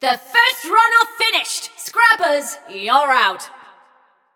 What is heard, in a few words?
Scrap Run Runner Reached End, Announcer; Flux